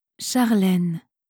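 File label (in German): "Charlene", französische Mädchennamen, Aussprache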